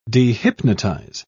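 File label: "dehypnotize", British English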